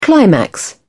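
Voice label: "climax", British English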